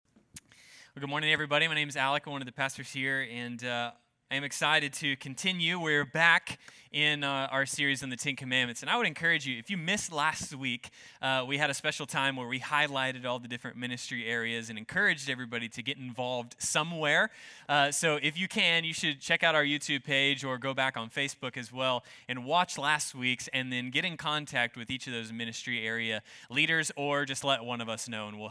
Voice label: Sermon Service English